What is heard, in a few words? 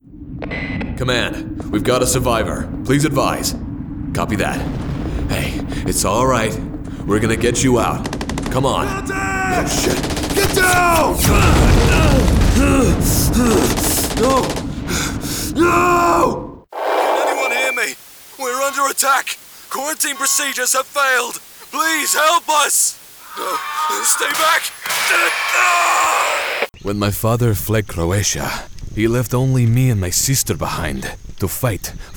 GAME VO